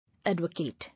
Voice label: "Advocate", English

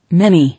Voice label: "Many", English

